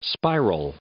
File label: "spiral", English